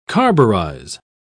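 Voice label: "carburize", British English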